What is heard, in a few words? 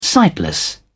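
"sightless", British English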